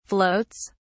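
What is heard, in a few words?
"floats", British English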